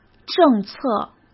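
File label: "政策", Mandarin Chinese; hsk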